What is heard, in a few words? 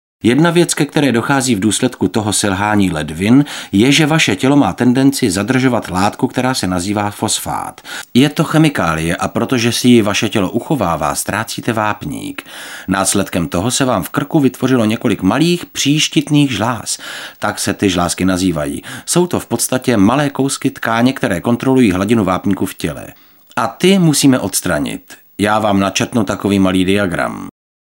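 male Czech documentary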